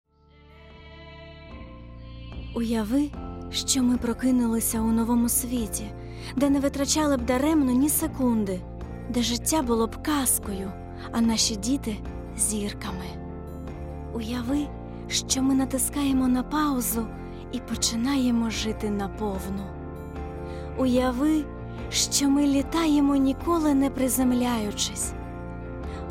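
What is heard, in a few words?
Ukrainian, female, corporate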